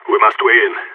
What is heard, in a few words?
radio lock n load; agents; phoenix